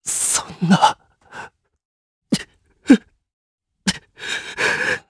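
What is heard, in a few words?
Vox Sad, Japanese; Zafir; kingsraid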